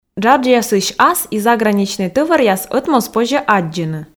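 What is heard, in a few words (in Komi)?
джаджъясын ас и заграничнӧй тӧваръяс ӧтмоз позьӧ аддзыны, Komi, female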